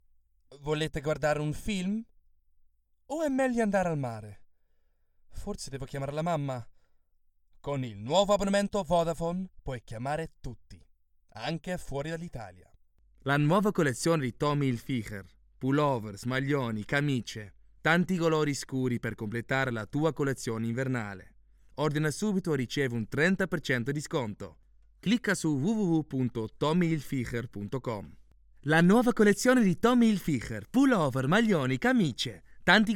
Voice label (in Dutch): Italiaans, voice-over